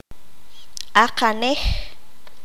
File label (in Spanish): "pie", Q'anjob'al